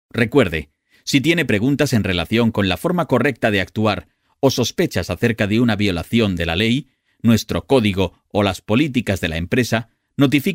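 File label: Spanish, male, e-learning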